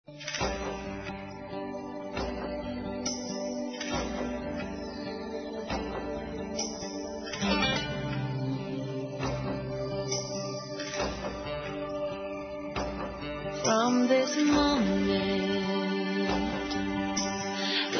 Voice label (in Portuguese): FELIZ ANIV. F; Aniversários; Aniversários diversos; fem